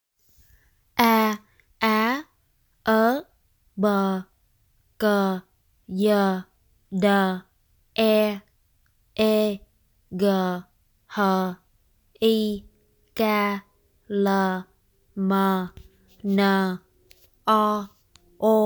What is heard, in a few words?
Pronunciation alphabet, vietnamese